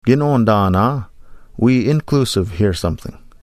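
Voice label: "Gnoondaanaa", Ojibwe; We hear something (including you); Nanabush Looses The Meat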